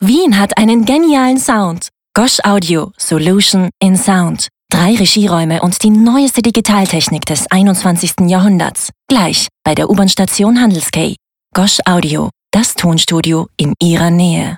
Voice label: Werbung plakativ

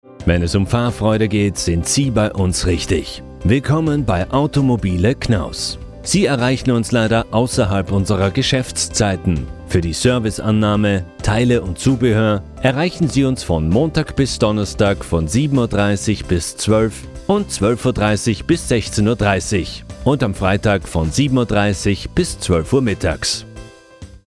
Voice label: Telefonansage

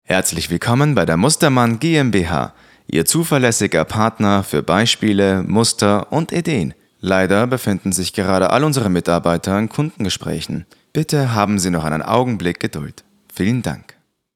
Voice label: Telefonansage; GER

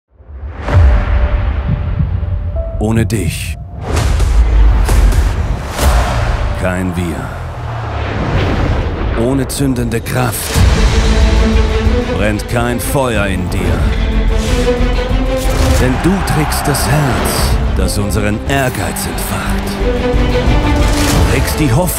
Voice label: Online Werbung; Puma

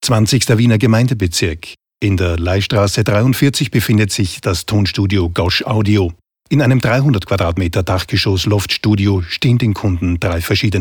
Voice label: sachlich

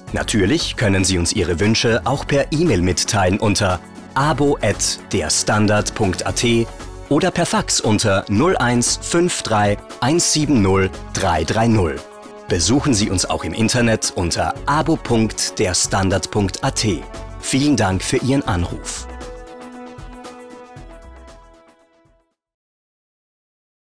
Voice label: Telefonansage Standard Abo Service

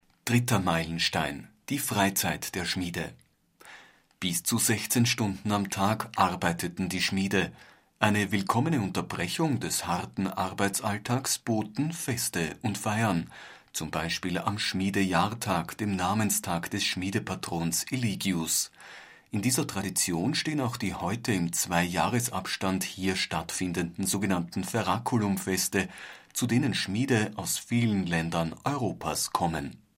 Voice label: Audioguide; Dritter Meilenstein

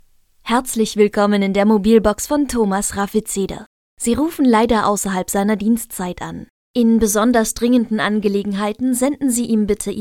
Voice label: Telefonansage deutsch

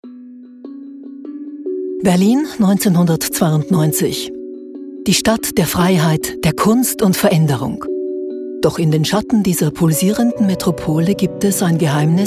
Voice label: Reportage

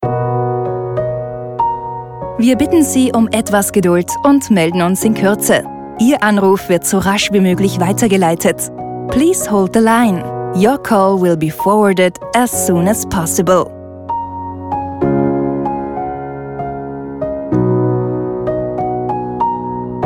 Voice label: Electronic Warteschleife

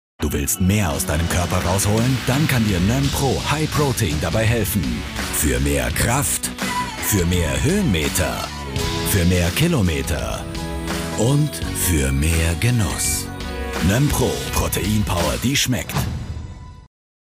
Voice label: Werbung dynamisch; NOeM Pro Aufstrich

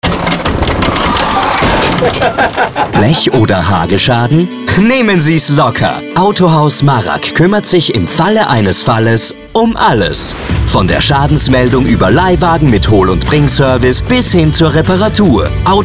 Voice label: WERBUNG Autohaus

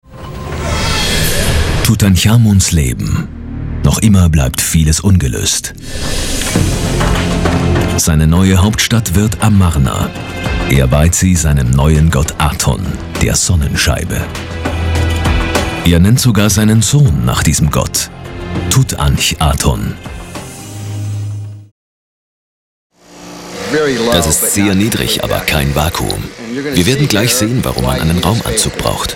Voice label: TV Dokus

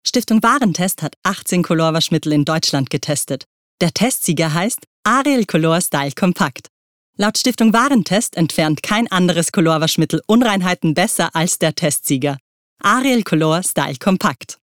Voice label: Werbung; Ariel

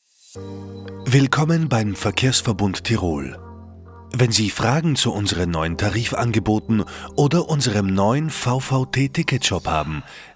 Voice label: telefon

